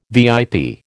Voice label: "VIP", American